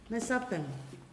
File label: "fourth day of month", Pingelapese